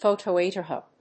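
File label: "photoautotroph", English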